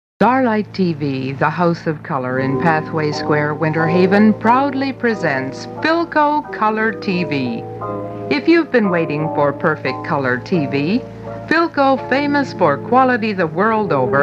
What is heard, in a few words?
TV commercial; WSIR; Starlight; 1962